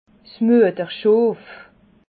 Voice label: "mueterschoof", alsace

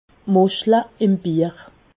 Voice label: "muschle", Alsace

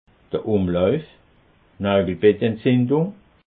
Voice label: "umlauf", alsace

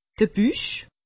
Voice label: "buch", alsace; medecine

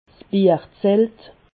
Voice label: "bierzelt", Alsace

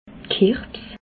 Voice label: "kirbs", alsace

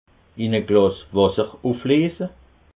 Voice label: "glass", alsace

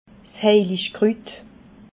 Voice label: "heiligkrut", alsace

